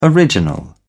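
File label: "original", British English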